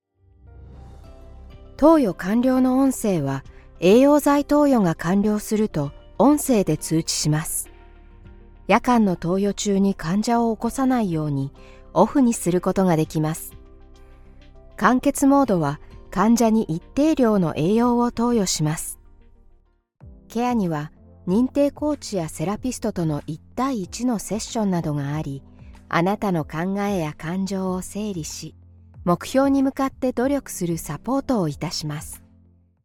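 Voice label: Japanese, female, Medical narration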